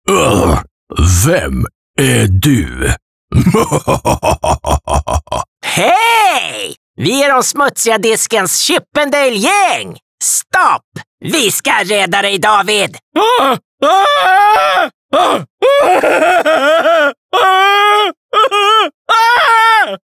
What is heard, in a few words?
Voice Acting Characters